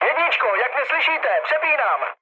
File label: chat, speech Czech; War Thunder